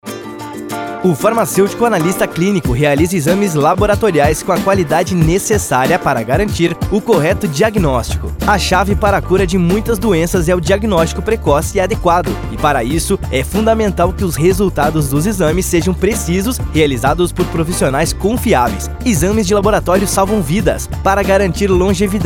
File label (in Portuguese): Spot de radio; Campanha CRF SC